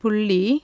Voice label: "dot", Tamil consonants; JIPA

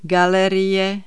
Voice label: gallery, Czech

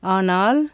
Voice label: "but", Tamil consonants; JIPA

